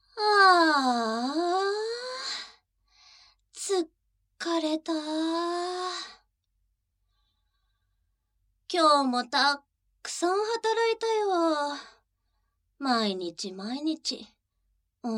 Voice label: voice Only, ASMR